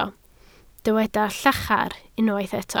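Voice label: "llachar" Welsh, spoken word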